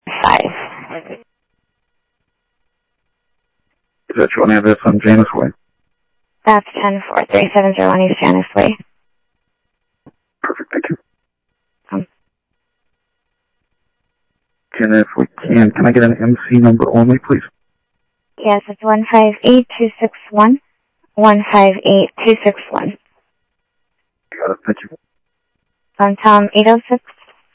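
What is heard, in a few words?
Sheriff Lake Patrol, scanner audio trunk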